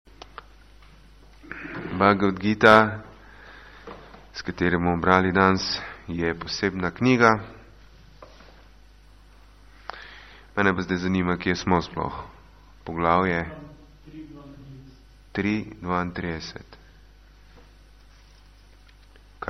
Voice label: Slovenian; ISKCON Slovenia; BG 03-32; Ocean materialnega sveta; Jai Nitai Gaura